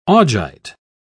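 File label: "augite", British English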